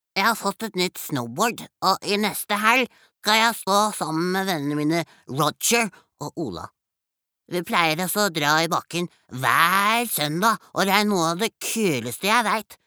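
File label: Norwegian dub boy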